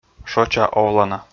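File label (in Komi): "шоча овлана", Komi, male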